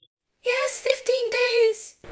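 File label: Voice Acting Spanish Female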